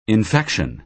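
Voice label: "infection", American English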